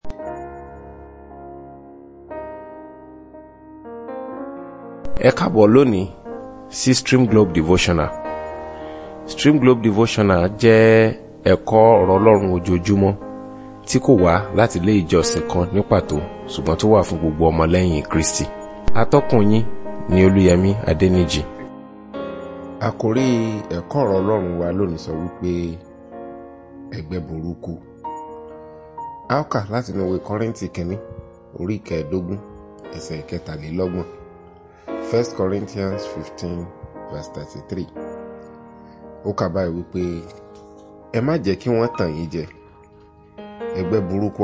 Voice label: Devotional Audio Yoruba